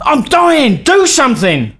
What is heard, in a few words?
Dying, male, voice commands; svencoop